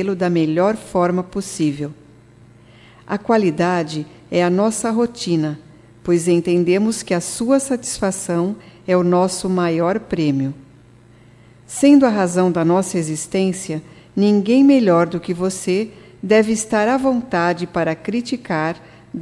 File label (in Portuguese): AGRADECIMENTO AO CLIENTE - F, Só Voz; fem; Telemensagens 2017